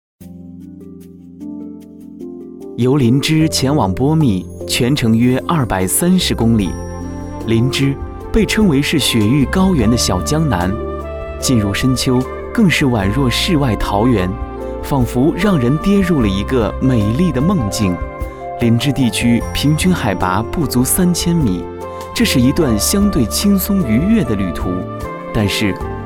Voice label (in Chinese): 男,解说,深情唯美